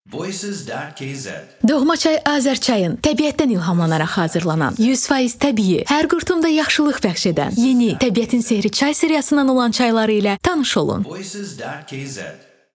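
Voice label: Voice Azerbaijani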